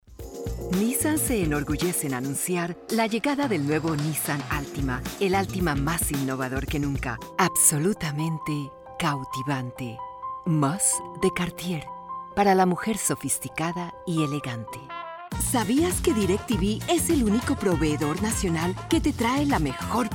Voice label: Commercial No slate